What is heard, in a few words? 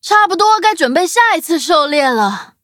level up, zh-hant